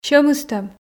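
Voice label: "сьӧм ыстӧм", Komi, female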